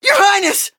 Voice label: hit, en; cookierunwiki

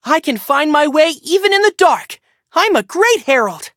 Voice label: loading, en; Cookie Run

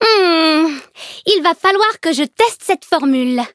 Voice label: loading, fr; Cookie Run

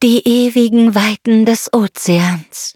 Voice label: loading, de; Cookie Run

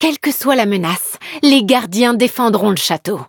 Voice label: levelup, fr; cookierun

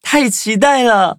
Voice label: battle zh-hant; cookie run

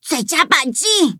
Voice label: defeat, zh-hant

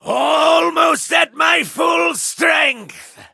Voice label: levelup en; cookie run

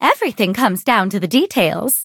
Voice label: levelup en

